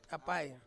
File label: "apai", Ansus; bow